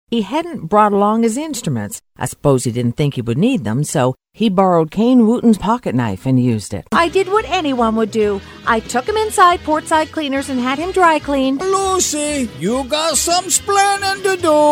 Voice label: CHARACTER